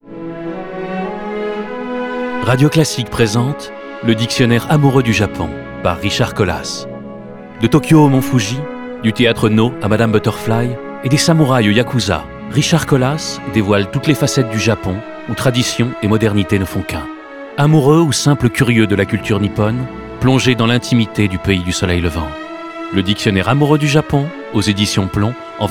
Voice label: spot

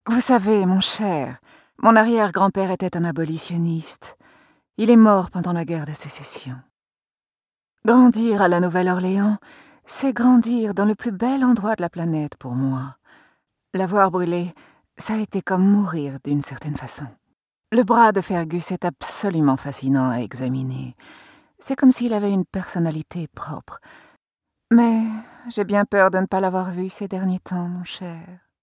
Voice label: Scientifique Calme; Lunel

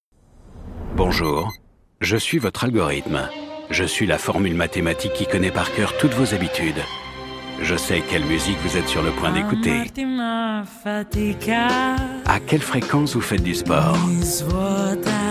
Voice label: Pub TV Hybrid Voix dun algorythme; Alfa Roméo Tonale

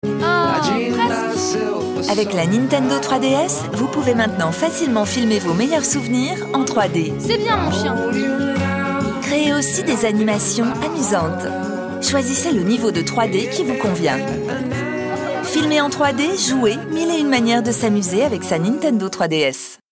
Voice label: pub maternelle souriante; NINTENDO 3DS

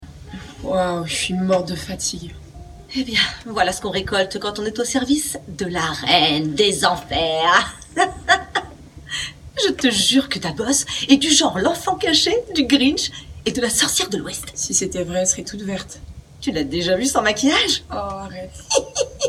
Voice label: Doublage série Voix enthousiaste

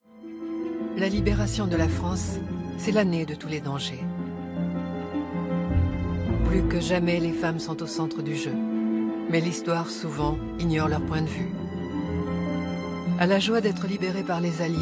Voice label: Documentaire Narration; les femmes de la liberation

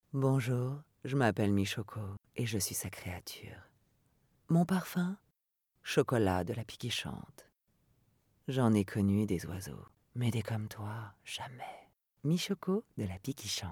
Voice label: maquette pub sensuelle; Michoko

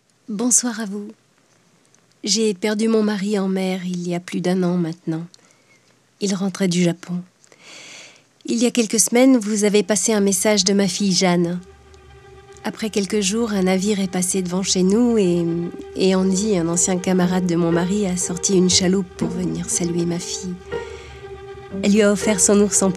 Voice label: Voix maman

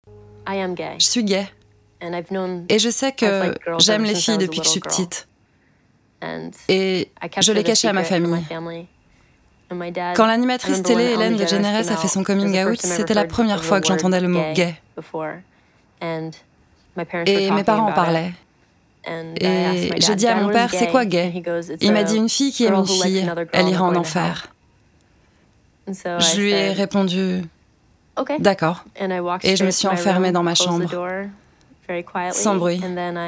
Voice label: Voice over

